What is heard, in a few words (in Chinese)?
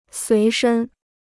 "随身", Chinese